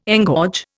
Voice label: "engorge", Indian